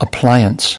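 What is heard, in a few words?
"appliance", English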